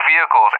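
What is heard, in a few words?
"vehicles" English, speech; DCS; Allied Flight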